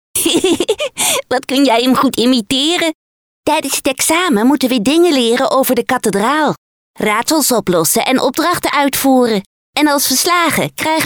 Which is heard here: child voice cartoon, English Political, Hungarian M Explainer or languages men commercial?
child voice cartoon